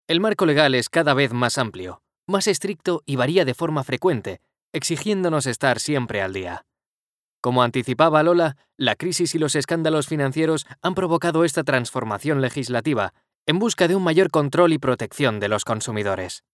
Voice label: male spanish elearning